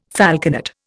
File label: "falconet", Indian